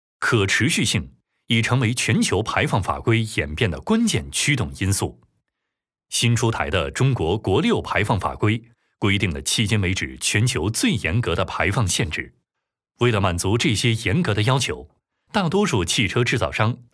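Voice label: Mandarin Chinese, male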